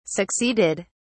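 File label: "succeeded", British English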